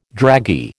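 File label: "draggy", American English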